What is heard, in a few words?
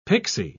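"pixy", British English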